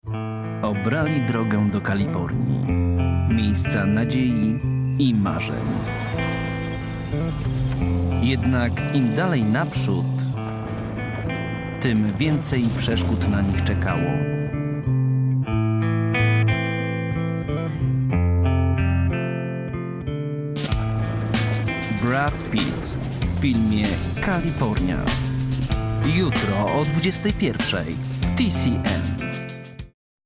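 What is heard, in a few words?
Polish, Narrative; GoLocalise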